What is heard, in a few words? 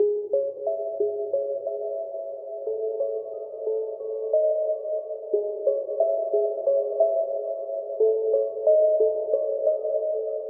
whispers; Loops; Electronic